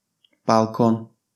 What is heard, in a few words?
"balkon", Turkish